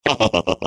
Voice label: Defective Turret defect laugh; sp sabotage factory